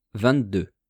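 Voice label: "vingt-deux", French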